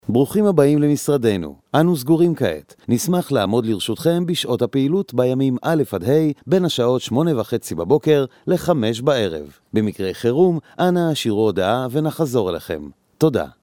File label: hebrew telephone; HEBREW » Powerful, mature, and vibrant Israeli voice with a lot of range.